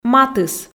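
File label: "матыс", Komi, female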